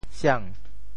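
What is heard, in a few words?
"siang2", Teochew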